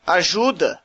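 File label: help, Brazilian Portuguese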